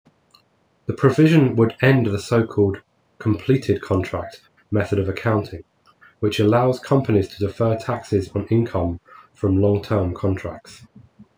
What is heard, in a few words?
reverb near 2ch